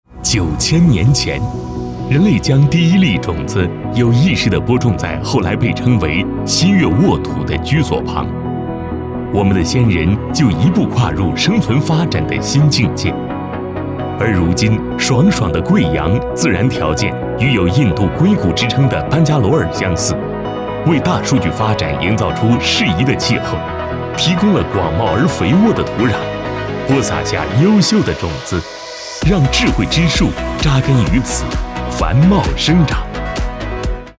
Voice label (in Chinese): 男 宣传片 生态基地主宣